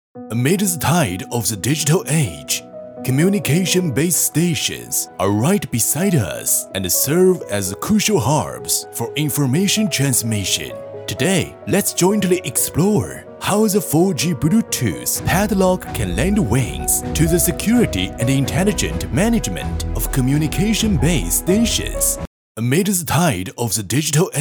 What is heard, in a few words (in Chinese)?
男 英语